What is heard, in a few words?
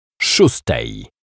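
"szostej", Polish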